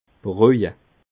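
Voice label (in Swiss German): "broje", Alsatian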